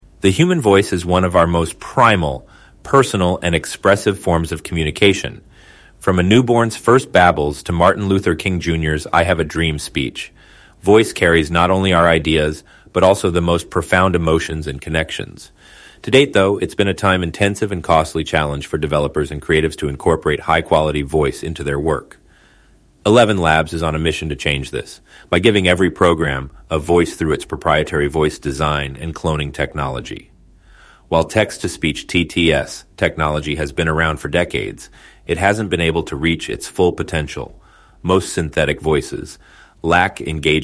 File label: Voiceover